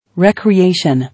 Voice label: "Recreation", English

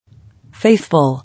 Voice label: "Faithful", English

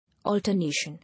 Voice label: "Alternation", English

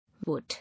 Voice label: "Vote", English

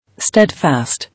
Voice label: "Steadfast", English

